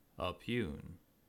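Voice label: "oppugn", American English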